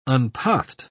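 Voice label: "unpathed", British English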